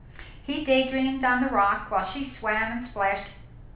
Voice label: room speech 8kHz